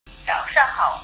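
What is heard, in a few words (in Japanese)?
"おはよう", 中国語 あいさつ 早上好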